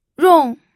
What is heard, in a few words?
"rong4", pinyin